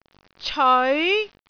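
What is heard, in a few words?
"ceoi2", Cantonese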